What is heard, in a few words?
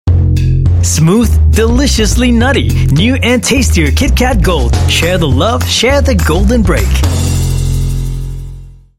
Malay English; KitKat Gold